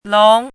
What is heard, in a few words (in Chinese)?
"long2", 汉字语音; chinese-voice